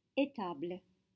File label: "étable", French